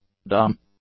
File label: "dumb", English; adjectives